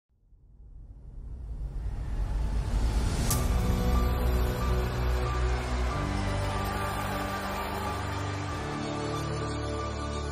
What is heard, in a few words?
english american others